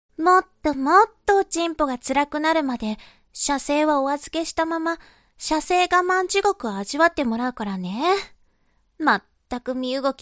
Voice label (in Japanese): 懲罰中音声, ASMR